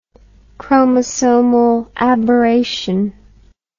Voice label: "chromosomal aberration", English